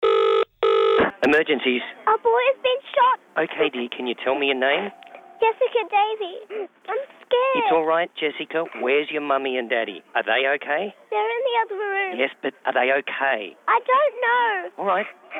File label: radio; Foxtel Girl